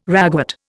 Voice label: "ragwort", Indian